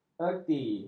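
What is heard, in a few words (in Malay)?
"erti", Malay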